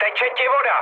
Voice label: damaged water, speech cze, mood med; War Thunder

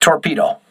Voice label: voice message torpedo, crew ships english

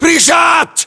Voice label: inform suppressed generic, battlechatter ru; localized english

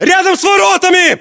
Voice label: landmark near gate, ru; localized english

